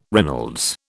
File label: "Reynolds", British